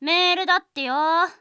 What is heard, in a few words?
mail, Japan Anime Girls; Windows; Bing; Nanao